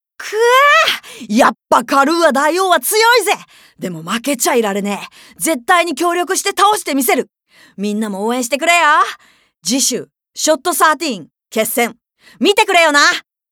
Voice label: female voice